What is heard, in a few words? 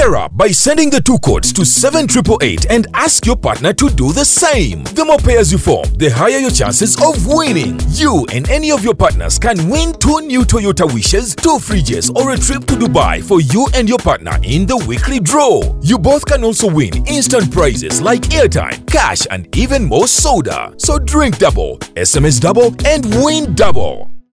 Pepsi Eng Radio ad